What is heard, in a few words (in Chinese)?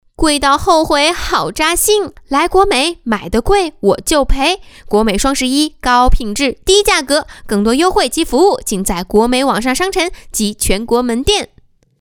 广告 家居家电 可爱, 女国; 国美电器